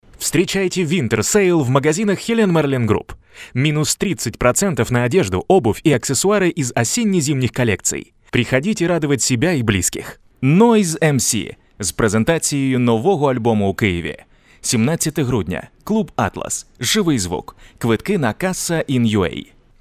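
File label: radio commercial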